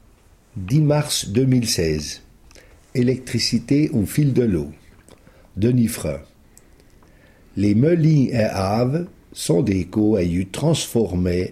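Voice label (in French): résumé en patois; moulins electricite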